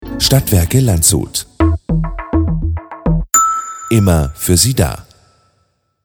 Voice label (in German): JINGLES CLAIMS STADTWERKE